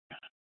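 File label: police dispatch radio, scanner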